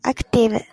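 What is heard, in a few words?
"active", Japanese speaker of English, female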